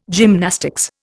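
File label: "gymnastics", Indian English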